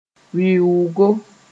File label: "wɩʋʋgo", moore